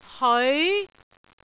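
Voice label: "heoi2", Cantonese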